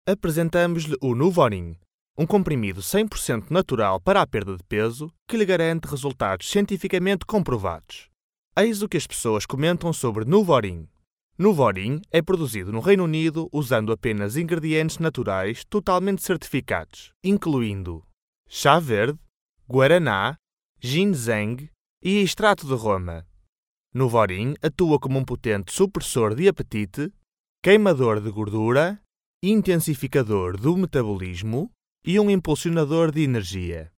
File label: portuguese male